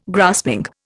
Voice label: "grasping", Indian